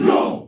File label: "eow", Voice